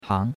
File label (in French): "hang2", chinois; Cours-de-Chinois